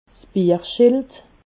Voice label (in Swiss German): "bierschild", alsace